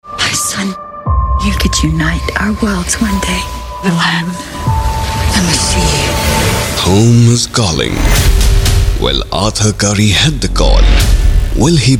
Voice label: English voice